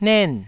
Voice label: "nen4", taiwanese